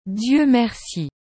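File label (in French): "Dieu merci", French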